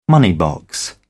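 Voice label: "money box", English, British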